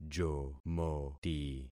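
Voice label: "jomoti", concatenated syllables; Affixing experiment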